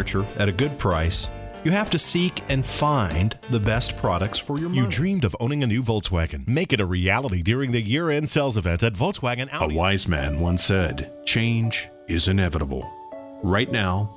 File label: soft sell